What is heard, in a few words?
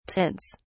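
"tints", British English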